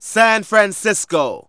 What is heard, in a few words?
Narration